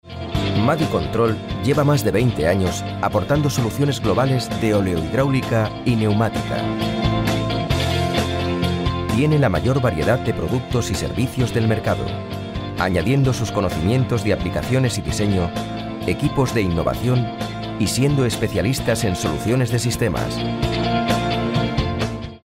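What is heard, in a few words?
European Spanish, Corporate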